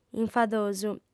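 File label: "infadosu", female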